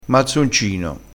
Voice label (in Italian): "mazzoncino", crespinese, vocabolario dialettale